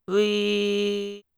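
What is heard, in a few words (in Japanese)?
"うぃ", Japanese syllable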